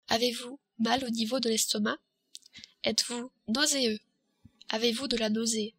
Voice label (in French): mal estomac, french